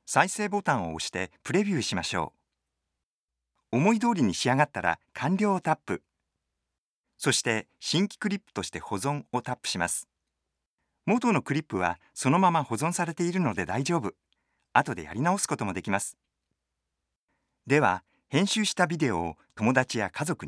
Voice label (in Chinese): 外籍日语手机提示